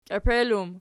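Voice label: "kiepel" plural, Archi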